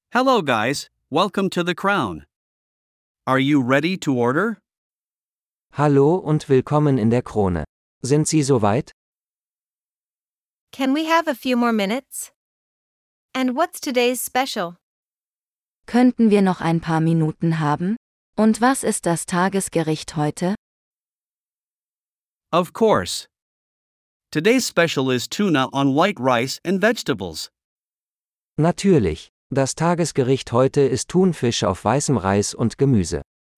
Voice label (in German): Dialog mit Uebersetzungen; Im Restaurant Teil 2